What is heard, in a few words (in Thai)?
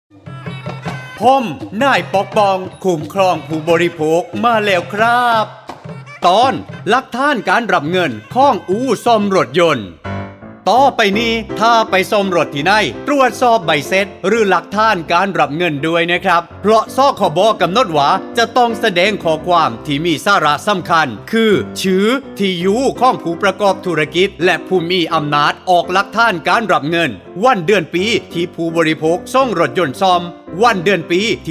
สปอตวิทยุ ภาคใต้; สื่อประชาสัมพันธ์; สคบ.; สำนักงานคณะกรรมการคุ้มครองผู้บริโภค